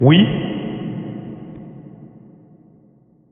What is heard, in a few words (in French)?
oui reverbe